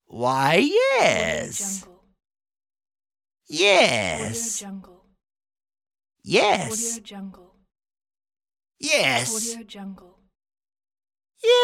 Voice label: old man yes pack